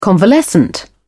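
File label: "convalescent", British English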